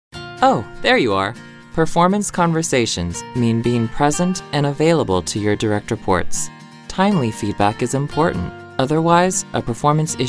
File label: ELearning